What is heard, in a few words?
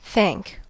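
"thank", American English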